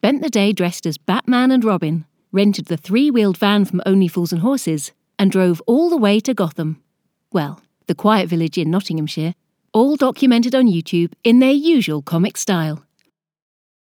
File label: Charity Video